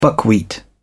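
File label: "buckwheat", English, British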